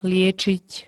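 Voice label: "liečiť", Slovak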